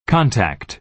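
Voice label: "contact", American English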